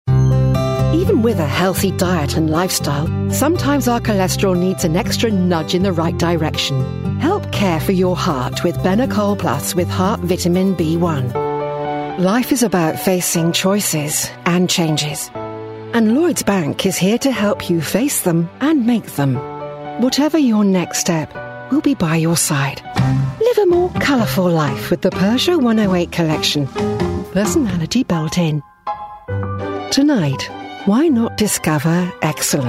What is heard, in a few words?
uk